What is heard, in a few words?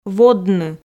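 "водны", Komi, female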